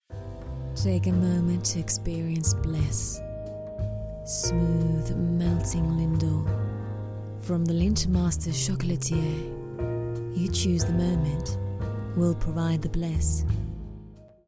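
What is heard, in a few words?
British English Commercial; GoLocalise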